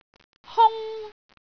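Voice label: "hung1", Cantonese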